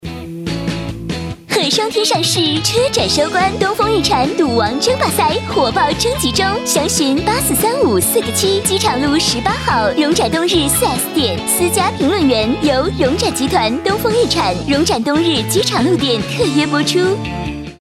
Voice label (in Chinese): 广告 汽车 欢快; 东风汽车